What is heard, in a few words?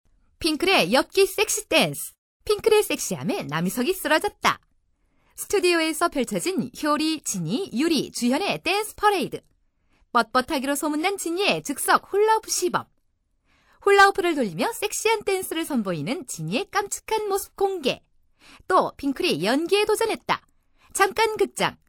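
Korean, female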